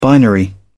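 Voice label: "binary", English, uk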